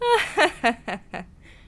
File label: woman